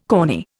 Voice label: "corny", Indian